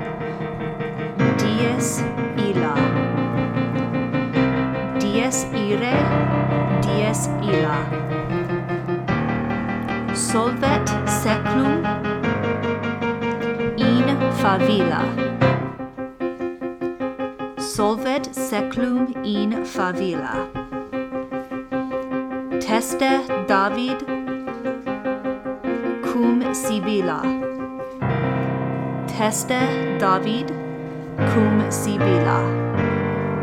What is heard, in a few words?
Dies Irae Pronunciation; middle school honor choir